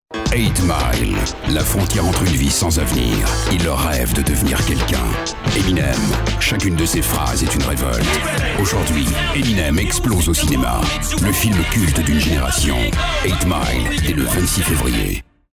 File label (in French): Voix M